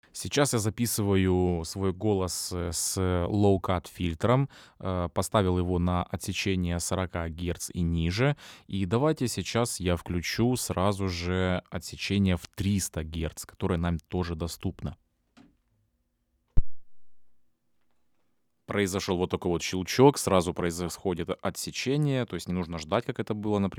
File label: lewitt lct 840 low cut filter; конденсаторный, широкомембранный ламповый микрофон; Lewitt LCT 840 — это конденсаторный, широкомембранный ламповый микрофон за 1300$